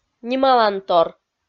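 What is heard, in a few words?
"нималантор", Komi, female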